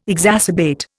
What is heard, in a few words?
"exacerbate", Indian